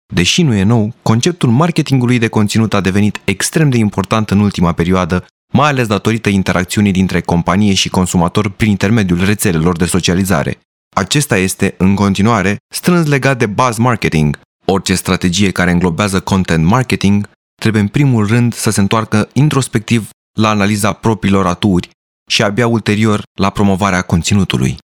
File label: Romanian